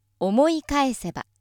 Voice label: female